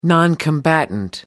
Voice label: "noncombatant", en uk